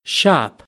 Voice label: "shop", American English; ɑː - ɒ